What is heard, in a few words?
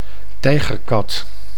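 "tijgerkat", Dutch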